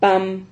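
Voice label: "pam", Spanish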